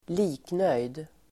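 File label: "liknöjd", Swedish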